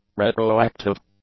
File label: "retroactive", English; adjectives